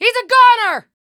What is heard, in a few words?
american Positive; azurlane-hoi4; azurlane-sr-reforged